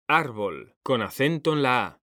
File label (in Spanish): "ÁRBOL", H; mekanta